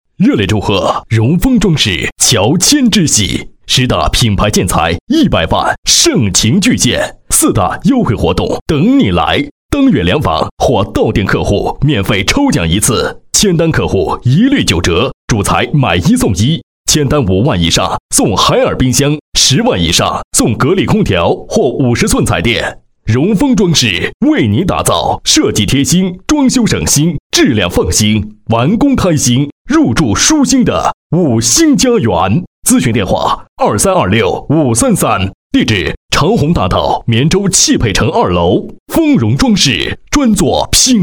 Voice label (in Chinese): 男 广告; 荣丰装饰